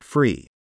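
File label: "free", English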